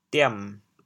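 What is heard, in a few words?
"diam3", Teochew, chaoyang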